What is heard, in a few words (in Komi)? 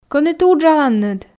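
Komi, кӧні ті уджаланныд, female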